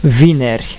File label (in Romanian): "vineri", Romanian; tijden